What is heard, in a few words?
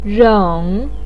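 "reng", Mandarin Chinese syllable, third tone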